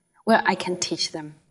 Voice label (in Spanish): Voice Acting es